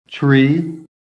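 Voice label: "tree", American English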